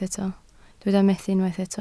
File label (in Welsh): "methu" Welsh